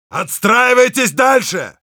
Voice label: Heavy move up, ru